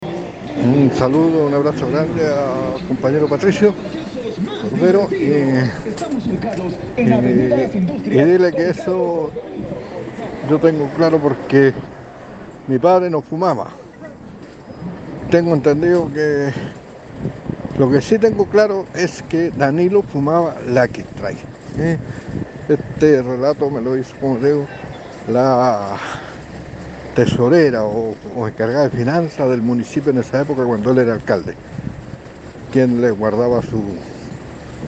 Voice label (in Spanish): Testimonio